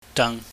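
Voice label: "dang", Cantonese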